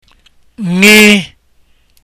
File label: ng letter, igbo